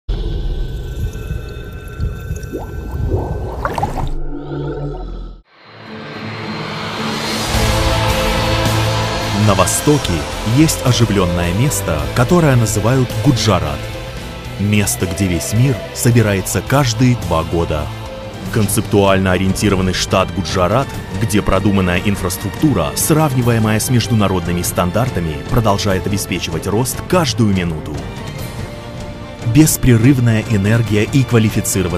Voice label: Russian Voice Over; Gujarat Global Summit 2015